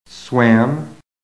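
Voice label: "swam", American English; PHONETIC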